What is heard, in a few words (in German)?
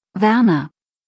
"Werner", deutsch, jungennamen